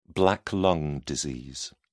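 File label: "black lung disease", British English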